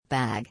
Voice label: "Bag", English